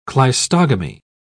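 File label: "cleistogamy", British English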